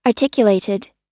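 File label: "articulated" US English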